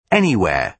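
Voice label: "anywhere", British English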